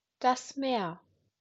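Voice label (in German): "Meer", German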